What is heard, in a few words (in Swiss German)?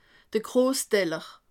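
"grossteller", Alsatian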